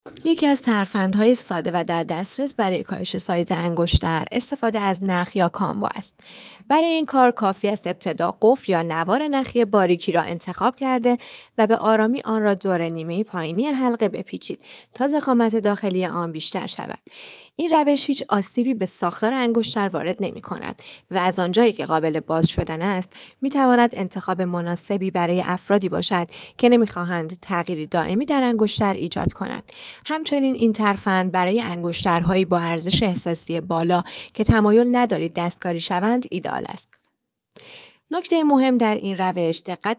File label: Voice isolated